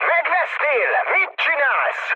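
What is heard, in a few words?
Hungarian player killed ally mood high, speech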